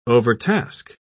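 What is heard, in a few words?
"overtask", English, British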